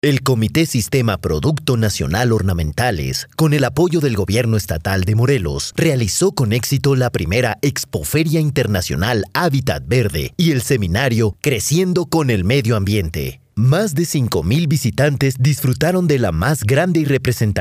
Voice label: locutor mexicano mexican voiceover

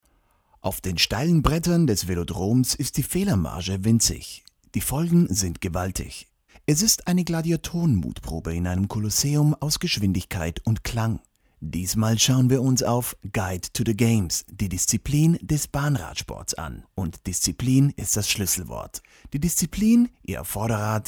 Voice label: locutor aleman german voiceover

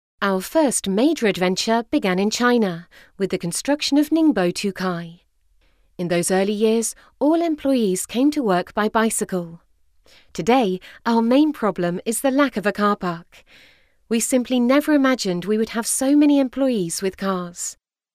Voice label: english voiceover locutora inglesa

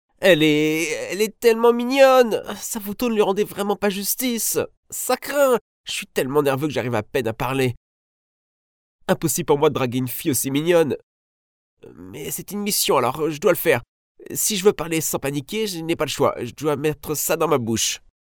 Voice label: locutor frances french voiceover

